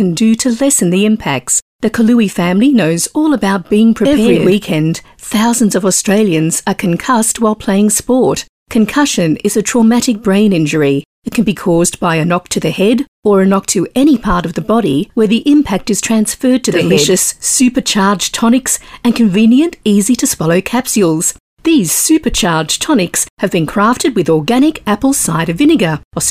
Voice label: Natural